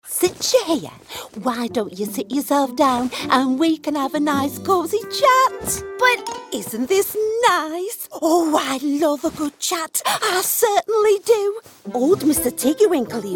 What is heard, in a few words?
languages female animation